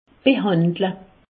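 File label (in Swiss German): "behandle", Alsatian; medecine; traiter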